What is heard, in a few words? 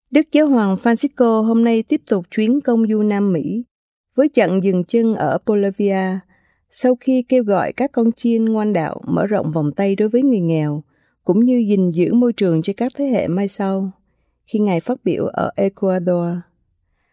VN female, vietnamese